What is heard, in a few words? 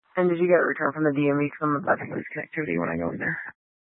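Dispatch, Scanner audio recording; Troop 3A Dispatch (W. Weld, N. Boulder)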